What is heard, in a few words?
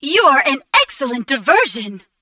announcer distraction